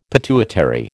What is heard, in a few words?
"pituitary", American